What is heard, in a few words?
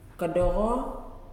Thank you, Nalik